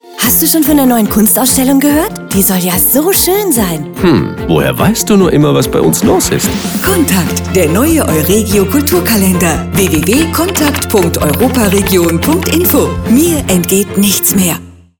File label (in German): Werbung Kulturkalender; Calendario culturale Euregio